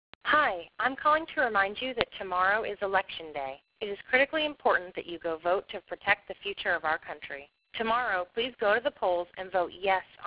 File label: robo call; merican future fund; nov 8 ohio